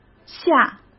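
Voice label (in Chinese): "下", Mandarin Chinese; chinese flashcards; hsk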